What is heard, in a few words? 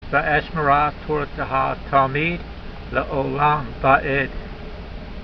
voice, hebrew language; Psalm 119:44; ve'eshmerah torateha ta'meed le'o'lam va'ed